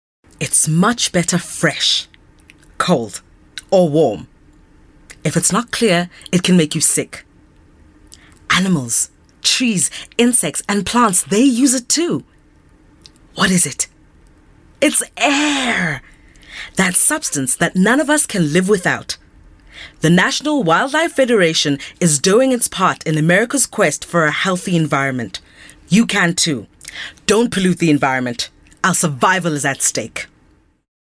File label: English Bright